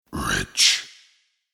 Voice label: "Rich" Spoken, The word 'Rich' spoken in deep male voice.; Recorded Voice (Sound Effects:Voice)